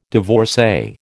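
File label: "divorcee", American English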